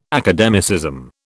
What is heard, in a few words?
"academicism", British